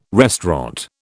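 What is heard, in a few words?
"restaurant", British English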